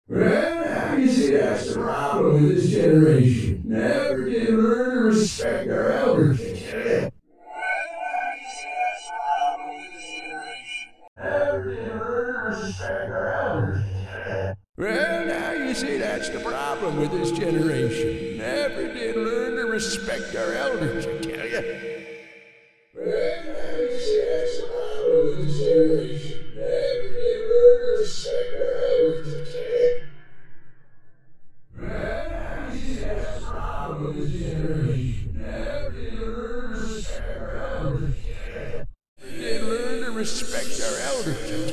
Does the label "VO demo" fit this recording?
No